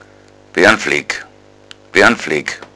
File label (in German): bernfleck, bayerischen Dialekt; Flurnamen